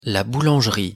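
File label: pronunciation "la boulangerie", French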